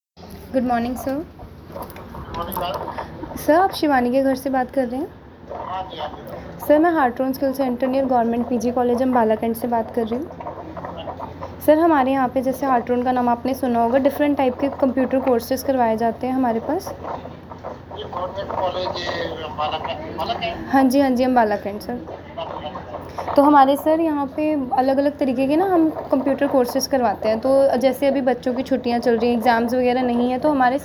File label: call recording